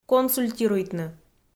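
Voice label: "консультируйтны", Komi, female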